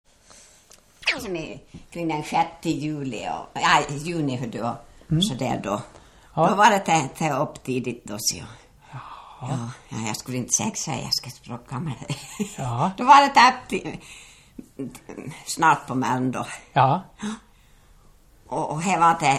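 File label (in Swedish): Ål Dalarna, Svenska sagor, dialekter; Folkminnen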